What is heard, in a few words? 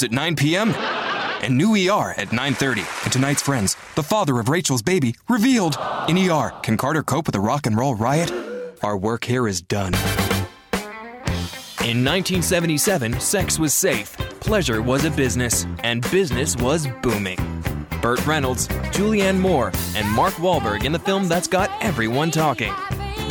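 Norwegian accented English; GoLocalise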